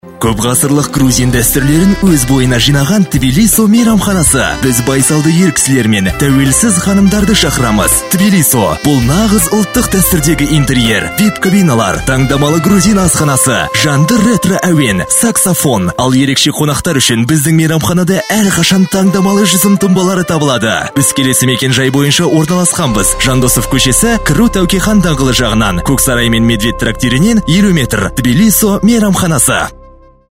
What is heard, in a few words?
kazakh male; dictor; dictor-sounds